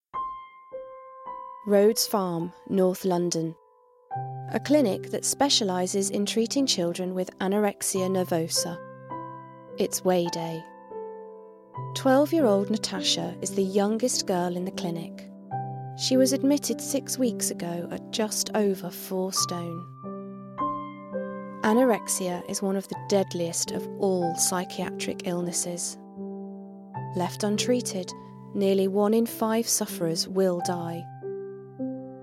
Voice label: TV Documentary

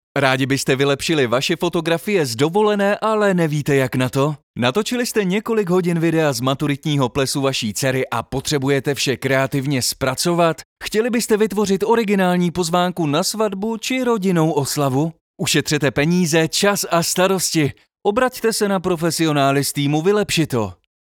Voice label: Promotional

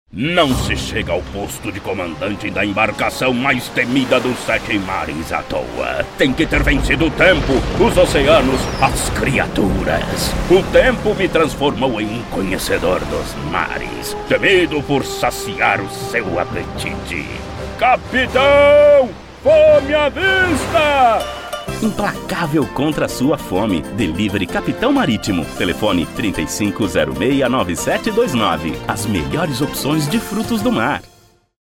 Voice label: spot; CAPITAO MARITIMOS

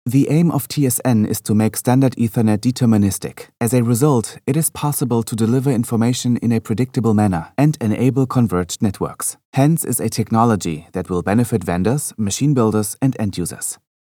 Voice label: English

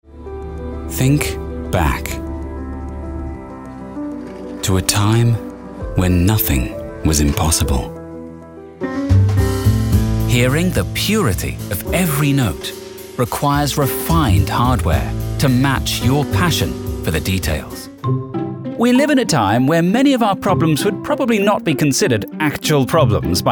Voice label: Web Broadcast British Variety

